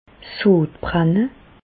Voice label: "sodbrenne", Bas Rhin; medecine; pyrosis

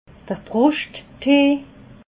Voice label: "bruschttee", alsace

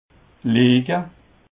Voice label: "lege", Alsace

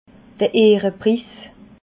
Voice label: "ehrepriis", alsace

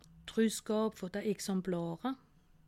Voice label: "rusgab", alsace

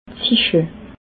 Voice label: "sichel", alsace

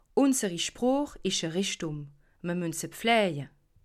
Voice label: "richtum", alsace

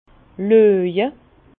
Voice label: "loje", Bas Rhin; vin; regarder

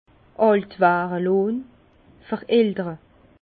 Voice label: "veraltere", Bas Rhin; vin; vieillissement

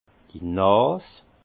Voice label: "nas", Alsace; le nez; vin

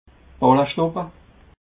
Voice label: "balle schtoppe", alsace

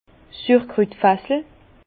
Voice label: "surkrutfassel", Bas Rhin; vin; fût à choucroute

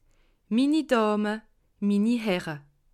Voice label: "mini dame", alsace

